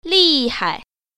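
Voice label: "li4hai0", Mandarin Chinese Tone Pair Drills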